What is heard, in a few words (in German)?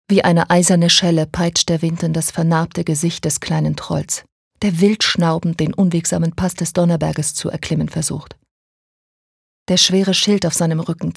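Schauspiel Kühl; Helden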